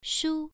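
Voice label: "shu", Mandarin Chinese syllable, first tone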